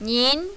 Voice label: "nin2", mandarin, female

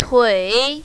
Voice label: "tui3", mandarin, female

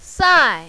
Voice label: "sai4", mandarin, female; 赛; 賽; 馬; сай4

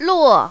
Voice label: "luo4", mandarin, female

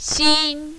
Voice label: "xin1", mandarin, female

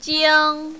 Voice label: "jiang1", mandarin, female

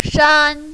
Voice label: "shan1", mandarin, female

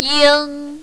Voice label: "ying1", mandarin, female

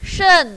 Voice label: "shen4", mandarin, female

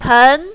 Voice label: "pen2", mandarin, female

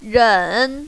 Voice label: "ren3", mandarin, female

